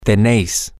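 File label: you all Spain have, Spanish, male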